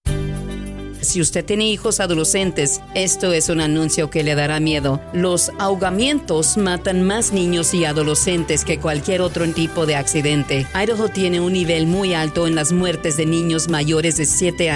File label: Spanish